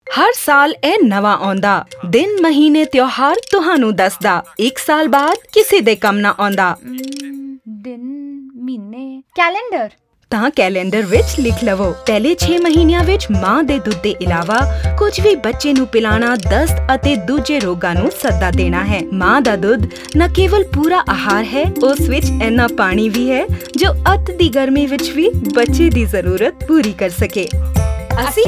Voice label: Exclusive Breastfeeding Punjabi; poshan